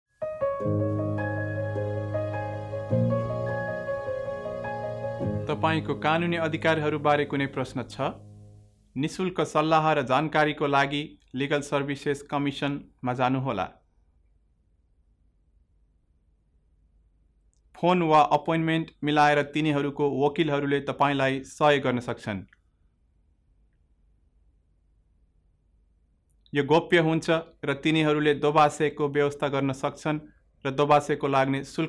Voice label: Nepali